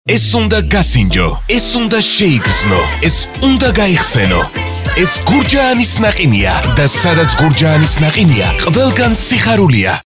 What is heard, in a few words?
locutor georgia georgian voiceover; Georgian male voice over